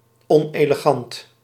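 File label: "onelegant", Dutch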